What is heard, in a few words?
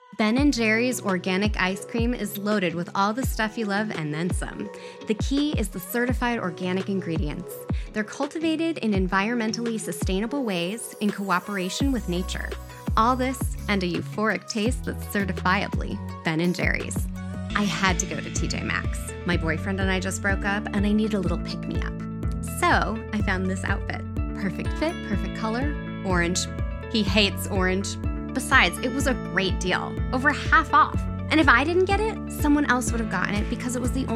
commercial vo